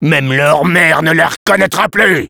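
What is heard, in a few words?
special completed, French; Sniper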